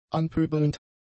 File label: "anpöbelnd", German; adjectives